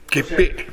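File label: "geppe", Minamiyamagata dialect Japanese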